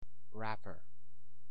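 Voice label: "wrapper", American English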